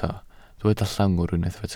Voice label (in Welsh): "llongwr", Welsh